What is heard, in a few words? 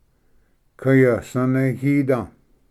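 "kayvsanvhida", Cherokee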